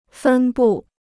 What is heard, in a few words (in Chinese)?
"分布", Chinese